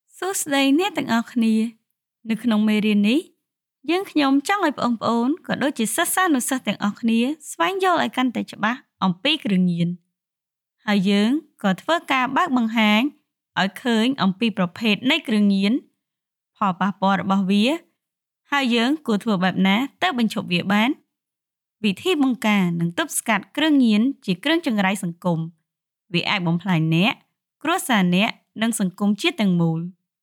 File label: female khmer voice over narrative, cambodian